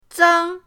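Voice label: "zang", Mandarin pinyin, first tone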